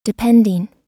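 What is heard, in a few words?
"depending", US English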